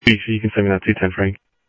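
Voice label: Sheriff West County, scanner audio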